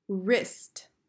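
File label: "wrist", English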